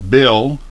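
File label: "Bill", English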